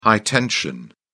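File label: "high tension", English, British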